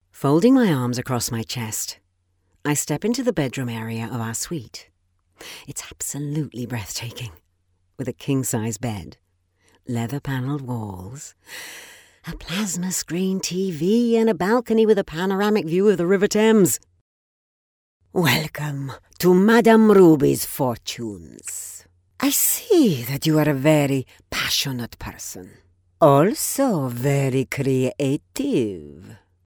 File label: female british voice over character; english british